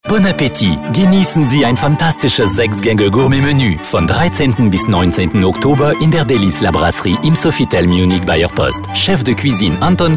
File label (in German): Spot, DEUTSCH FRZ AKZENT; Sofitel